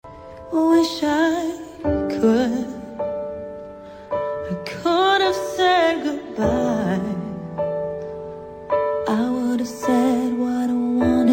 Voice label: dia dos namorados distante, voz feminina; dia dos namorados para namorado distante

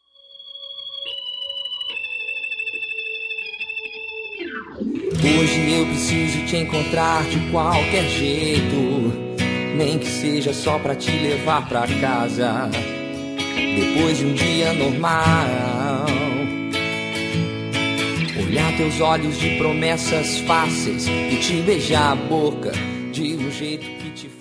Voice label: dm descupla fem, dia das maes perdao para mae voz feminina

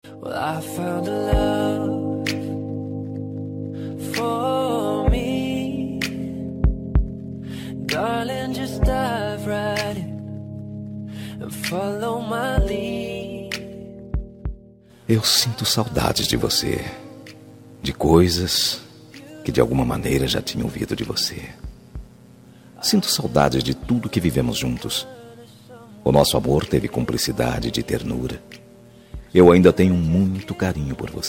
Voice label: dia dos namorados ex masculina; para ex namorada

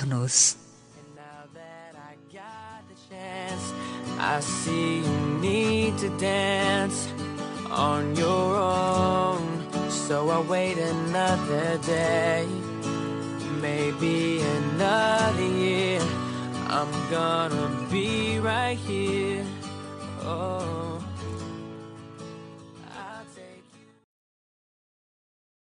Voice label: dia dos namorados namorado, Dia dos Namorados – Para Namorado – Voz Feminina

